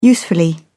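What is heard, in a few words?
"usefully", British English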